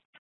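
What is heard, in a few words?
Tempe police department, scanner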